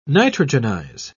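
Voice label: "nitrogenize", British English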